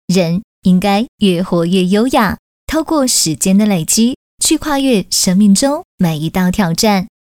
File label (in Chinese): 台湾腔; 林志玲